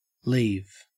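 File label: "leave", Australian English; pronunciations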